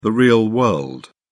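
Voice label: "real world", British English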